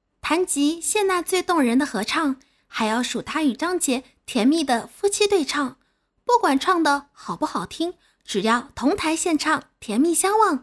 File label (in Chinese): 综艺演绎类